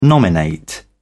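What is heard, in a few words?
"nominate", British English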